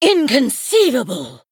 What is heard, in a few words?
Female Sanlayn Emote WOW